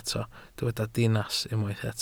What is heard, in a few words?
"dinas", welsh